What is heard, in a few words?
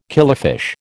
"killifish", American